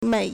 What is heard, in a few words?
"mei3", Chinese